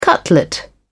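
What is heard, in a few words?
"cutlet", British English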